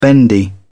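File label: "bendy", English, British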